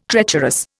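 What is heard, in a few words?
"treacherous", Indian English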